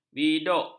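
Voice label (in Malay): "biduk", Malay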